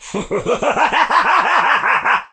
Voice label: alien taunt death

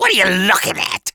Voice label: voice demon pit taunt

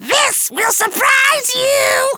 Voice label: voice demon pit skill

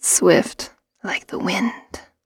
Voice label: Female Elven Archer

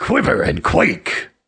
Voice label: Stone Druid Taunt

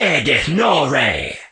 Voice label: alien taunt confirm

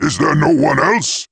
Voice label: giant taunt confirm

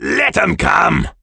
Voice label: dwarf taunt

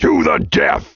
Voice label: Barbarian Taunt

